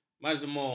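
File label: "mazmur", Malay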